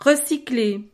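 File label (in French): "recycler", French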